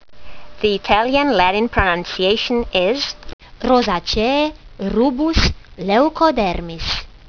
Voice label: latin; Rubus leucodermis